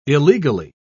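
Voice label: "illegally", British English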